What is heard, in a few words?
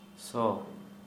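smell, Santali, male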